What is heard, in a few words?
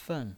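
"fen" Mandarin Chinese, fourth tone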